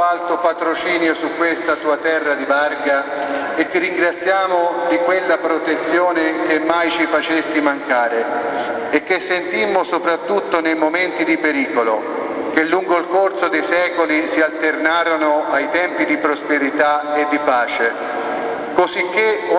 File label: san cristoforo prayer